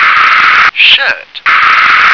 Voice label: "shirt", English

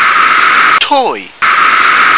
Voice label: "toy", English

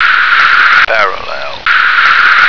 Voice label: "parallel", American

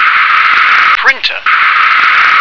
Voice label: "printer", English

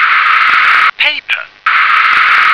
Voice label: "paper", English

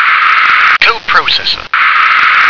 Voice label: "coprocessor", English

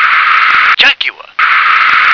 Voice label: "jaguar", English

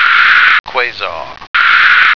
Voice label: "quasar", American

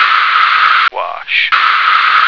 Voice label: "wash", American

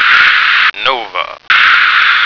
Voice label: "nova", American

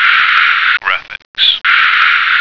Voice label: "graphics", American

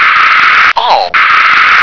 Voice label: "arm", English

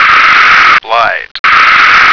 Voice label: "light", American

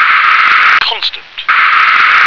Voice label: "constant", English

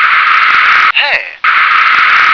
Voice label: "hair", English

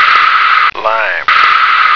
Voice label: "lime", American

